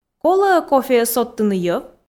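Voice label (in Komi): колӧ-ӧ кофеӧ содтыны йӧв, Komi, female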